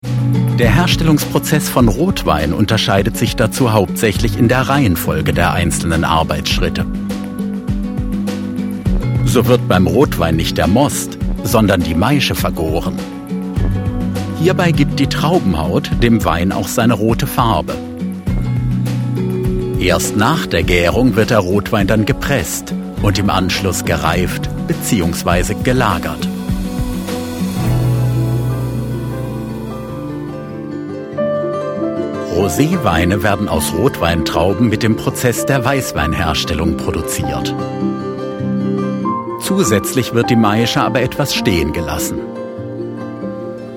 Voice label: german documentaries